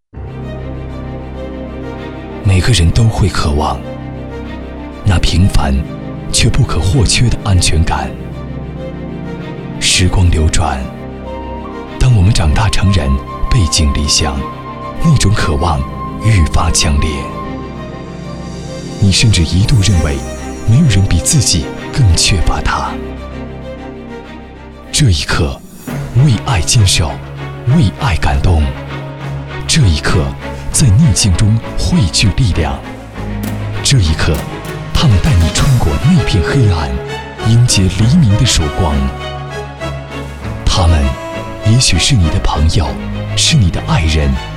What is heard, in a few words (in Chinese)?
微电影 励志篇, 男国